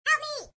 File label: excited